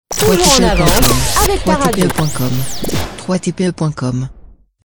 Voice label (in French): Toujours en avance, avec ta radio ! femme